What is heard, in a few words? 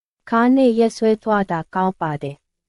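Myanmar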